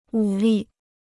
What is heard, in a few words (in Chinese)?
"武力", Chinese